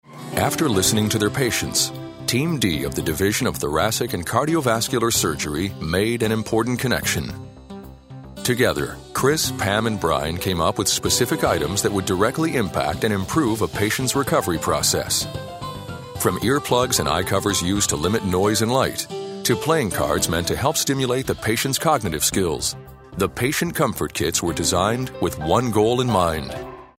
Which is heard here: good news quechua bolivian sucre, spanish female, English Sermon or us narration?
us narration